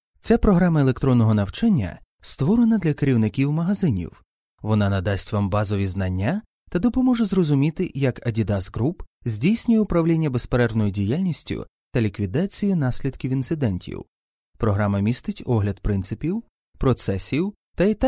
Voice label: Ukranian M E Learning; voice-over

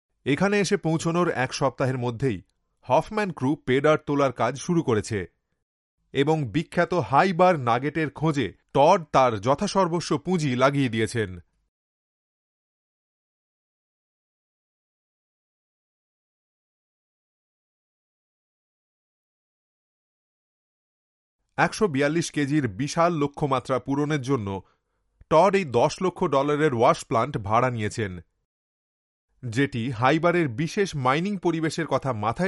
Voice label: Bengali M Ad; voice over

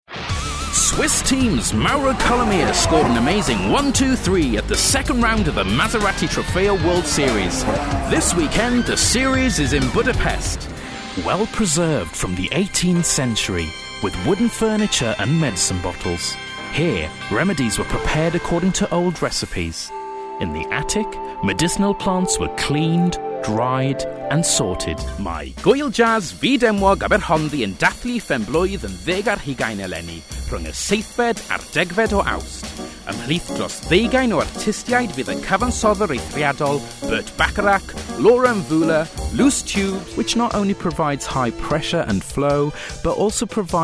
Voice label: English M Mix English And Welsh, accent of wales; Voice reel English And Welsh

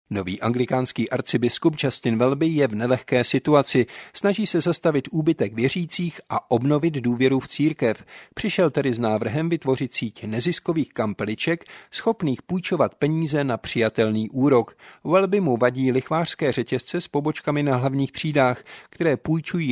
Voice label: Czech M Narrative; voice over

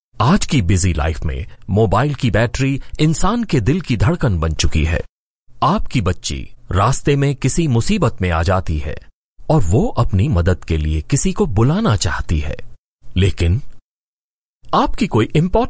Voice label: Hindi M

